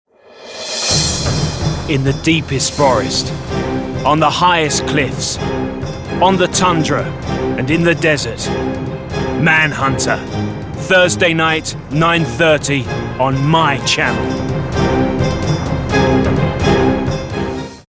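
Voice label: uk commercial tv promo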